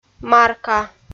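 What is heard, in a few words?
"марка", Komi, female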